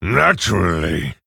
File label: Male Orc Ogre